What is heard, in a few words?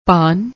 "paan", Punjabi